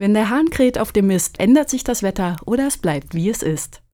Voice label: german folk saying weather change cock